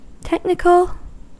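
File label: "technical", US English; pronunciations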